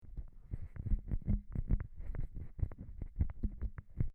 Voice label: Hand Superlux PRA D

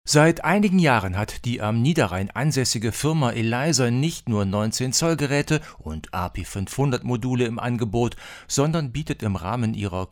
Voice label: Rode Broadcaster; Steinberg IXO22

